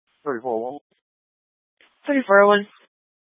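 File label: Incident Tactical, scanner audio recording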